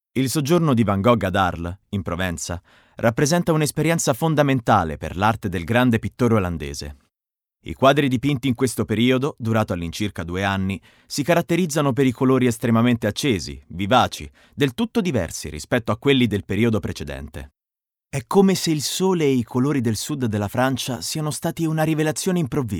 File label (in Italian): Documentario, italian, male; documentary